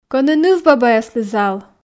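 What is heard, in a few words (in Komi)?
кӧні нывбабаяслы зал, Komi, female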